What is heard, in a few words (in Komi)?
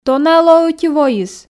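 дона-ӧ лоӧ ӧти войыс, Komi, female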